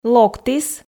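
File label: "локтіс", Komi, female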